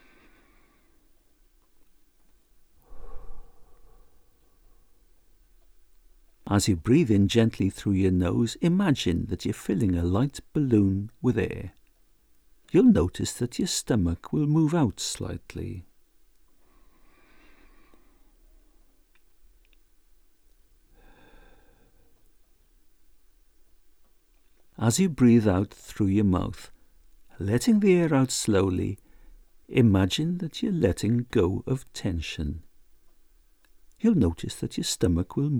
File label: Deep Diaphragmatic Breathing